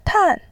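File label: "tan", Mandarin Chinese, fourth tone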